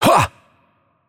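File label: Attack Heavy, Romanian; bloom minigame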